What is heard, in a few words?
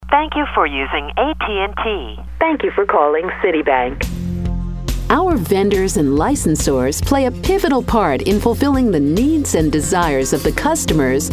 Corporate